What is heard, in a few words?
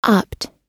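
"opt", US English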